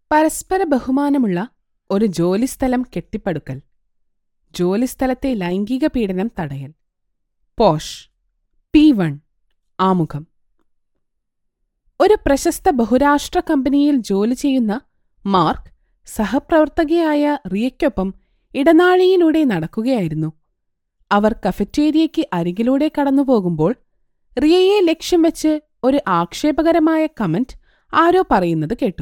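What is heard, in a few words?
Malayalam e-learning; GoLocalise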